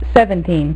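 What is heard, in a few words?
"seventeen", female; MacCribbage; InterStellar MacCribbage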